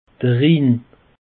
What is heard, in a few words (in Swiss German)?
"rhin", alsace; peche